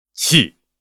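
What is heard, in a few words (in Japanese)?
"チー", Japanese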